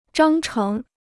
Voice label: "章程", Chinese; zhāng chéng; rules; regulations

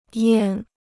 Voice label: "验", Chinese

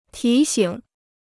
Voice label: "提醒", Chinese

